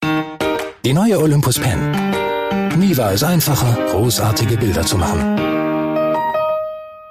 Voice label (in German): Werbung; Olympus Pen